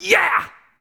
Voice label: "yeah", shouts; Best Service; Hyper Dance